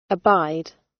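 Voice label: "abide", British; Crime and punishment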